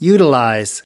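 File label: "utilize", English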